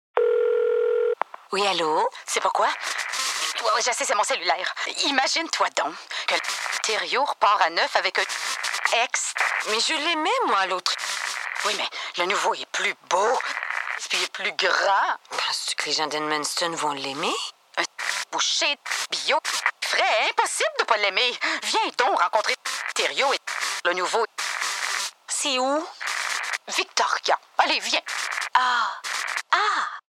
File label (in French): Personnage Energique conversation quotidienne